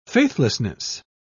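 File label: "faithlessness", British English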